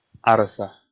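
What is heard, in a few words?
"арӧса" Komi, male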